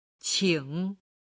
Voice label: "qing", Chinese

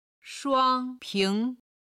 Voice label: "shuang1ping2", chinese

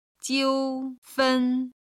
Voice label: "jiufen", Chinese

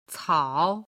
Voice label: "cao3", Chinese

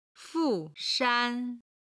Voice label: "fu4 shan1", Chinese

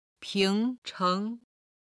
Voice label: "ping2 cheng2", Chinese

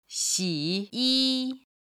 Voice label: "xiyi", chinese

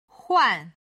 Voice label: "huan4", chinese

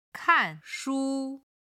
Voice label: "kan4shu1", Chinese

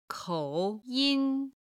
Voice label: "kou3yin1", chinese

